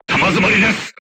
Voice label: voice message load, japanese; Frag; War Thunder; crew tanks